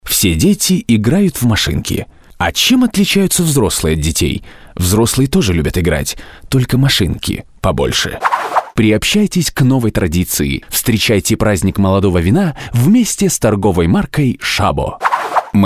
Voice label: RUS